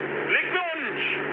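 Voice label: German player killed enemy mood low, speech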